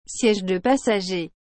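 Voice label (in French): "siege de passager", French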